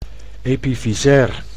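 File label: "epifysair", Dutch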